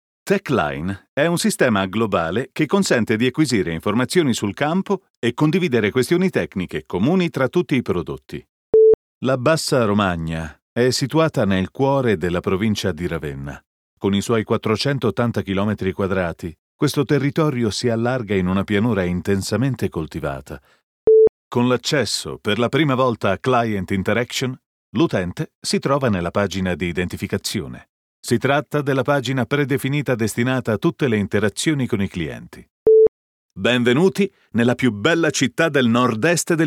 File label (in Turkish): Italyanca Erkek; seslendirme